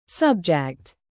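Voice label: "live", verb, British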